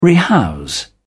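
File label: "rehouse", British English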